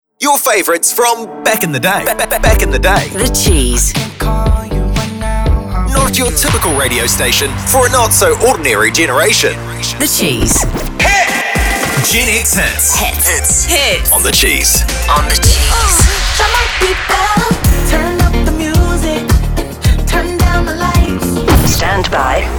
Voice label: Imaging